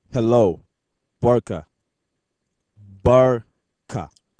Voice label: Hausa Hello

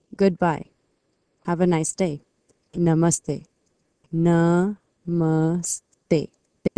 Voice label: Hindi Good Bye

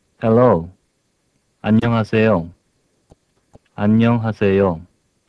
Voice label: Korean Hello

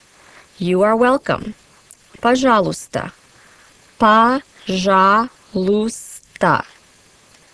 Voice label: Russian Welcome

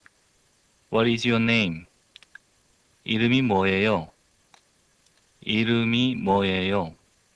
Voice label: Korean Name

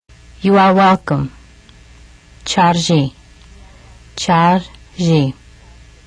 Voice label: Armenian Welcome

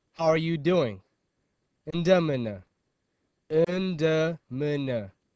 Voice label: Amharic Greet

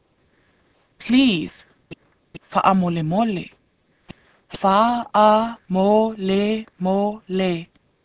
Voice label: Samoan Please

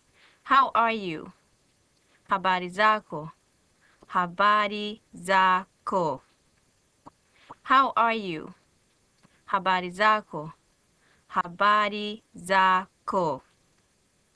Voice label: Swahili Greet

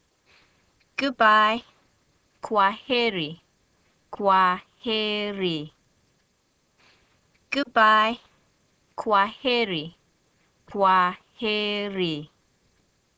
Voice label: Swahili Good Bye

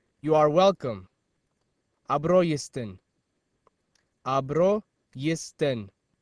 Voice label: Amharic Welcome

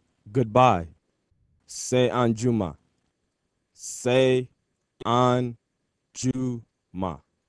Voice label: Hausa Good Bye

